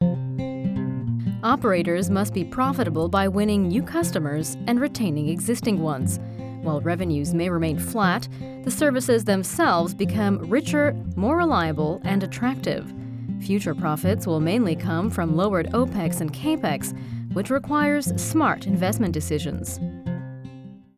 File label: US imagefilm, englisch; sprecher